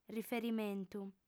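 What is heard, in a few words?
"riferimentu", Sardinian female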